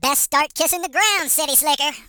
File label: HillBilly, Take cover; hedgewars